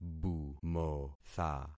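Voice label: "bumotha", concatenated syllables; Affixing experiment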